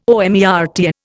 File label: "omertà", Indian